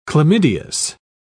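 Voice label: "chlamydeous", English, British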